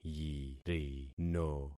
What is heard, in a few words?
"yirino", concat syllables; Affixing experiment